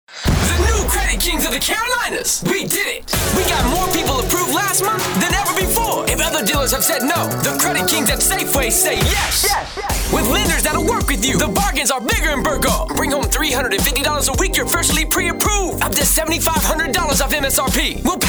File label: Urban Automotive